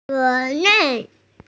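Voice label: "ze2ren4", Mandarin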